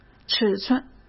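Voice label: "尺寸", Mandarin Chinese; chinese-flashcards; hsk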